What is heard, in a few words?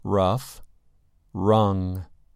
"rough" "rung"